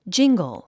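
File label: "jingle", 英単語 発音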